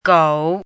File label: "gou3", pinyin